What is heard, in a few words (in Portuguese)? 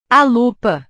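"a lupa", Portuguese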